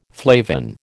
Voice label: "flavin", American